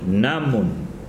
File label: "pie", tsesungun